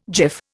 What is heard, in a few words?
"jiff", Indian